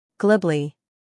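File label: "humor", British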